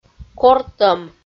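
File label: "кортӧм", Komi, female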